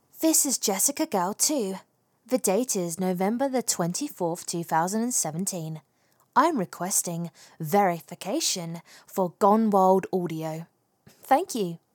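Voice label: verification, female, UK Girl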